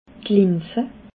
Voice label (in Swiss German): "linse", Alsace